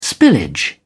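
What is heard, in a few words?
"spillage", British English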